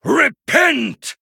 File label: Male Human Attack; en